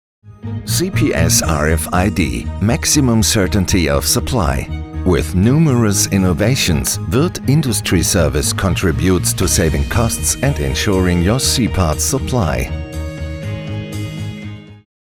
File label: Produktfilm EN; Wuerth